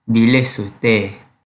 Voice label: Kelantan-Pattani Malay